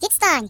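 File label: Leave me alone, British; Default uk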